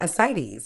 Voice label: "ascites", Medical English

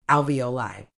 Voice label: "alveoli", Medical English

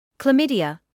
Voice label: "chlamydia", English

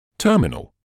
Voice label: "terminal", English

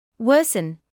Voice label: "worsen", English word

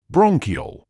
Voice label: "bronchiole", medical english uk

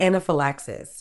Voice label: "anaphylaxis", Medical English

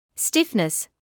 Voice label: "stiffness", medical English

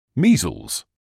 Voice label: "measles", English

